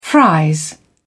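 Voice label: "fries", British English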